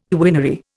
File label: "quinary", Indian